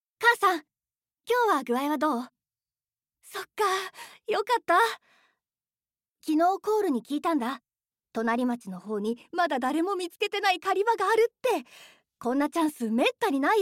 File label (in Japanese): 10歳少年